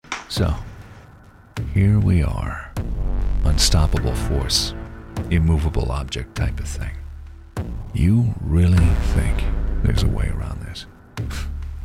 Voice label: Edgy